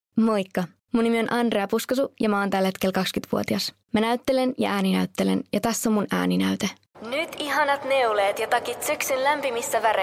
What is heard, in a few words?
Voice Demo